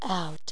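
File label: "out", English; Girlfriend Tracy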